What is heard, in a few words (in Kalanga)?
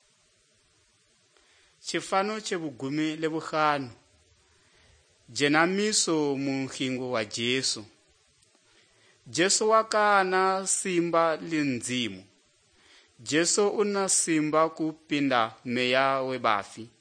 good news kalanga lilima, drama